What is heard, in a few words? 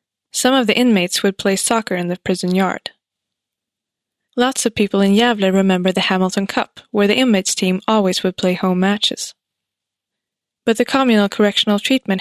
cell, audioguider; eng